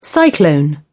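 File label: "cyclone", British English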